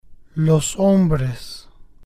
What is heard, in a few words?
"los Hombres", spanish